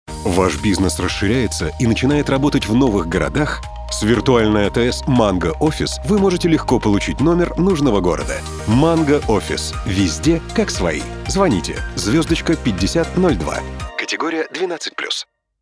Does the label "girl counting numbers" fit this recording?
No